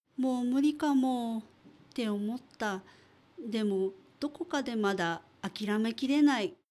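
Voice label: female; もう無理かも…って思った。でも、どこかでまだ諦めきれない。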